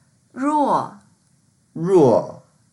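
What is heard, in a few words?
"ruo4", Mandarin Chinese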